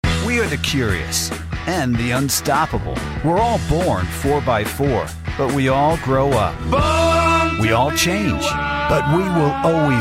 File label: Commerical